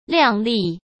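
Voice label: "亮丽", Mandarin Chinese; hsk; chinese-flashcards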